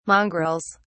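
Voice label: "mongrels", English, British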